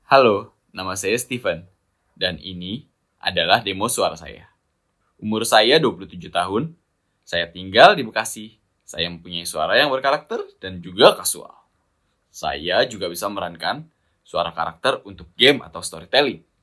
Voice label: BAHASA CASUAL